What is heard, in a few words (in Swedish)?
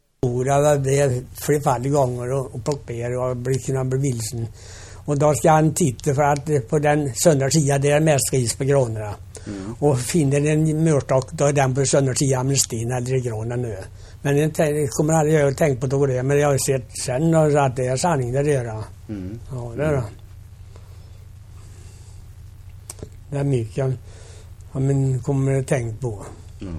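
Övre Ullerud, Munkfors Värmland, dialekter; Folkminnen; Svenska sagor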